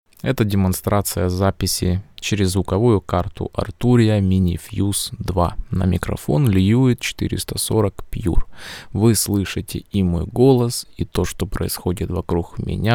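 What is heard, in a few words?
Arturia MiniFuse 2 voice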